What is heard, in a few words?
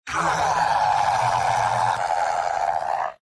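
pain critical death; sniper; mvm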